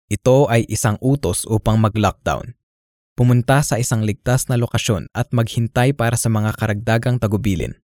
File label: Filipino Flat Serious